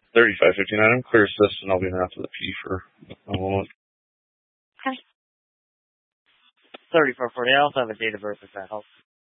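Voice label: Police Dispatch, Scanner audio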